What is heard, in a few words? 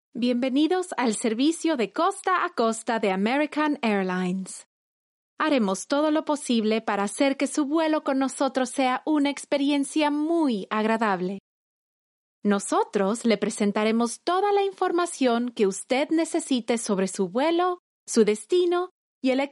Spanish LA F